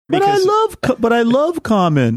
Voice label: saying but i love common funny